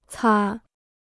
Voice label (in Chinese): "擦", Chinese